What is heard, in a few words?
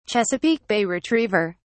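"chesapeake bay retriever", British English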